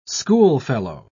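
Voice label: "schoolfellow", British English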